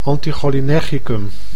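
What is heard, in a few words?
"anticholinergicum", Dutch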